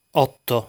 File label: "Otto", Italian; lesson 2 numbers